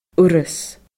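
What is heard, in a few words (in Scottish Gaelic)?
"urras", Scottish Gaelic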